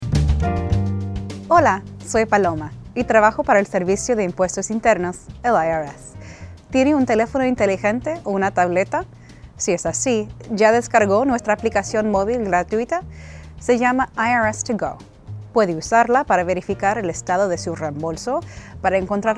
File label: Spanish; IRS2Go 5.0; IRS Updates IRS2Go Smartphone App